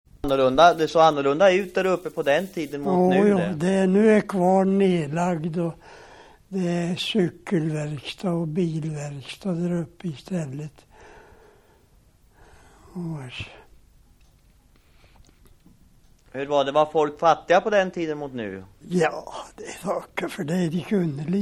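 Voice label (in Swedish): Viby Östergötland, Svenska sagor, dialekter; Folkminnen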